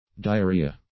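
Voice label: "diarrhoea", English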